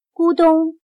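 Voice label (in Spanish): "咕咚", chino mandarin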